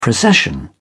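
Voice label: "procession", English, British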